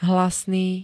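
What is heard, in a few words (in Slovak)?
"hlasný", Slovak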